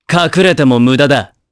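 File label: Vox Skill, voices, Japanese; kingsraid; voices heroes jp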